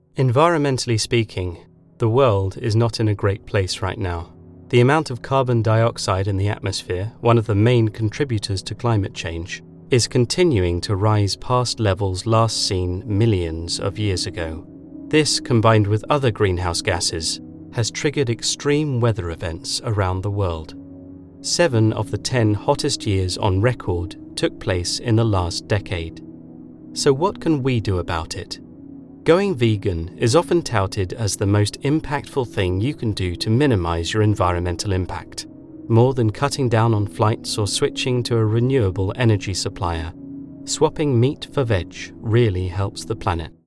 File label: Narrative